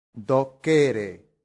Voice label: "docere", latin; santillana

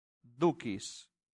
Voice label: "ducis", latin; santillana

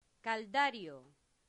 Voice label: "caldario", Latin; santillana

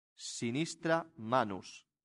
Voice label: "sinistra manus", latin; santillana

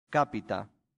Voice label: "capita", latin; santillana

